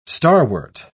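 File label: "starwort", British English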